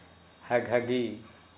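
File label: "diarrhea", Kera Mundari, male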